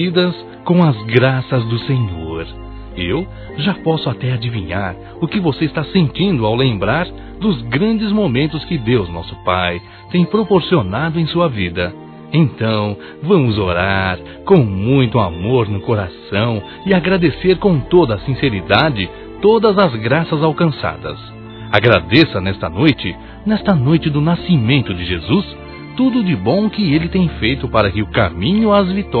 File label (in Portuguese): NATAL NEUTRO M